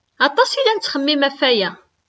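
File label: Kabyle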